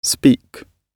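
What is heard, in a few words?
"speak", British English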